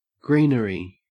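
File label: "greenery", Australian English; pronunciations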